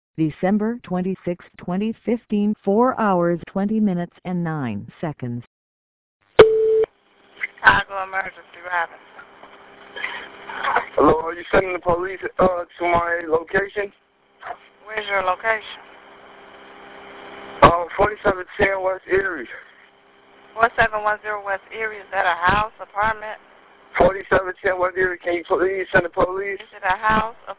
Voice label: 911 call